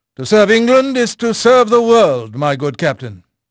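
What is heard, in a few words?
English male; Pirates Of The Caribbean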